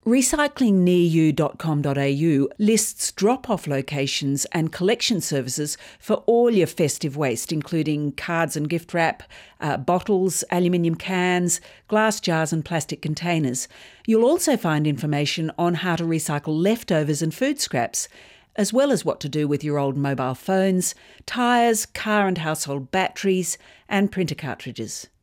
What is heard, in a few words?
radio grab